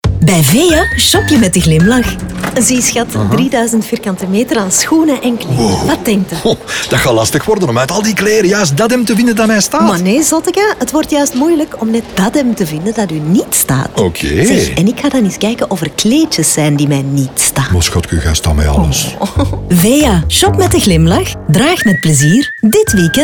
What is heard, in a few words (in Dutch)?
versie man en vrouw; Veja